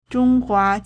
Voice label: "zhong1 hua2", Chinese

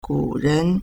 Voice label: "gu3ren2", Chinese

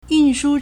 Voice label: "yun4shu1", Chinese; 运输; 運輸; yùnshū; verb; to transport; to haul; to carry

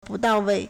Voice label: "bu4dao4wei4", Chinese

